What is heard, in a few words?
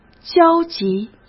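"焦急", Mandarin Chinese; chinese-flashcards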